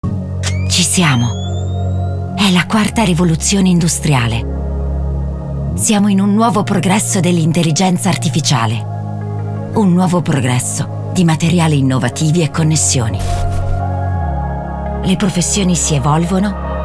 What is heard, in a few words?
voiceover